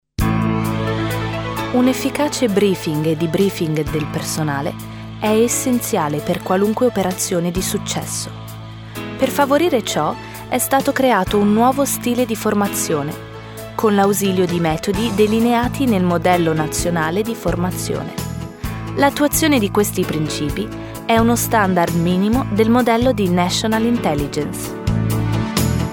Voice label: Effective Briefing Italian

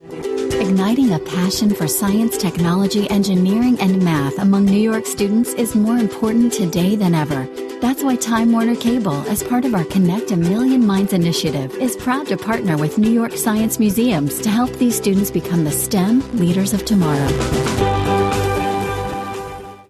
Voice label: Professional Announcer

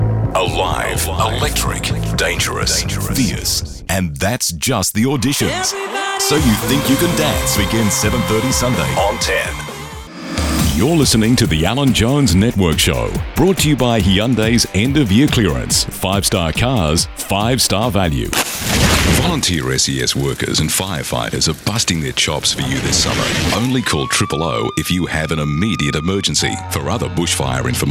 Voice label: imaging

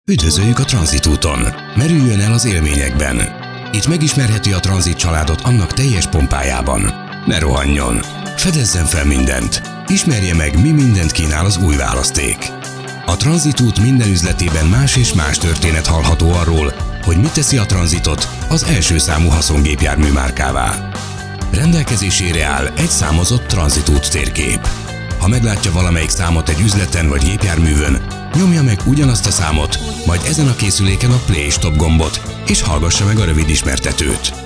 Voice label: elearning hungarian; ford

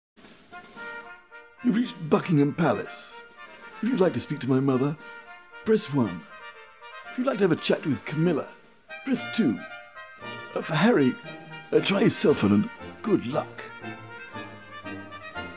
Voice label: impersonations

